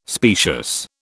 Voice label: "specious", British